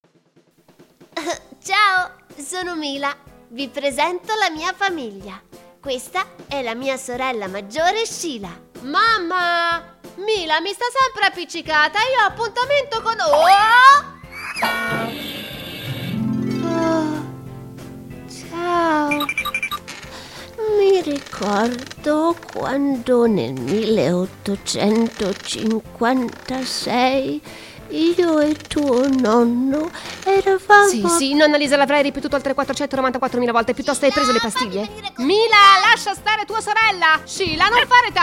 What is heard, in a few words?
italian animation